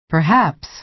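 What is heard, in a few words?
"perhaps", American English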